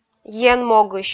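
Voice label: "енмогысь", Komi, female; audio dict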